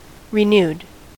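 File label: "renewed", US English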